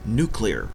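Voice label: "nuclear", Canadian English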